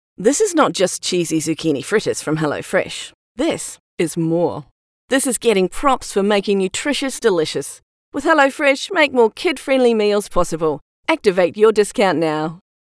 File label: TVC; New Zealand professional female voice over actor; Hello Fresh